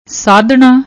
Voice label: "saadhna", Punjabi; ਸਾਧਣਾ

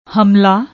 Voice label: "hmla", Punjabi; ਹਮਲਾ

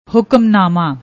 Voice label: "hukmnama", Punjabi

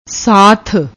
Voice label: "saath", Punjabi; ਸਾਥ

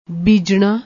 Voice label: "beejnna", Punjabi; ਬੀਜਣਾ; بِیجنا; verb, transitive; to sow

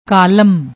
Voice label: "kaalam", Punjabi; ਕਾਲਮ

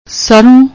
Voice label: "sarron", Punjabi; ਸਰ੍ਹੋਂ

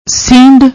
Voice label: "seend", Punjabi

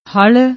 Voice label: "hal", Punjabi

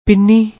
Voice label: "pinni", Punjabi

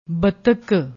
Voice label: "battak", Punjabi

